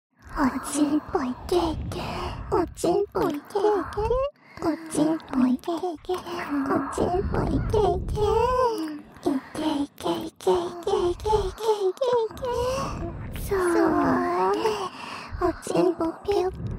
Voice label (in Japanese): 射精しちゃえ～～♪＋両耳キス舐め; おまけループ（オナサポ）; メスガキるびぃに馬鹿にされたい！